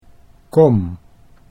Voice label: "kom", Dutch

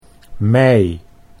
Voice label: "mij", Dutch

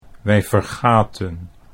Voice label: "wij vergaten", Dutch

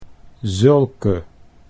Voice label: "zulke", Dutch

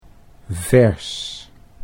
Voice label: "vers", Dutch

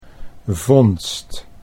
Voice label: "vondst", Dutch

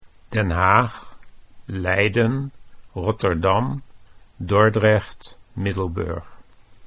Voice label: Den Haag, Dutch

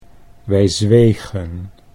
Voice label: wij zwegen, Dutch

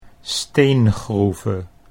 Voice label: "steengroeve", Dutch

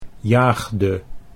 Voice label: "jaagde", Dutch

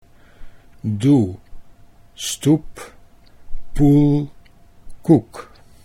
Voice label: does toep, Dutch

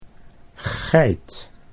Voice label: "geit", Dutch

